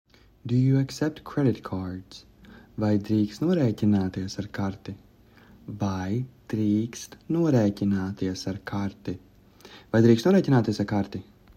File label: Do you accept credit cards, Latvian; the talking latvian phrasebook